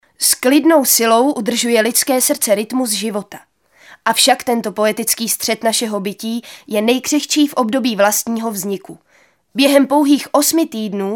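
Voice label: Female Czech documentary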